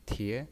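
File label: "tie", pinyin voice, second tone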